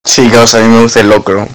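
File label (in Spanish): "locro", Spanish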